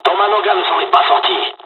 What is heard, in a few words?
dialog, dialogs french; midway; War Thunder